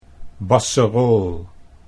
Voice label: "basserool", Dutch